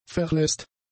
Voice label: "verlässt", German; adjectives